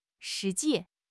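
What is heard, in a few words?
"shi2 ji4", Mandarin Chinese; HSK; HSK4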